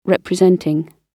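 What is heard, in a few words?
"representing", British English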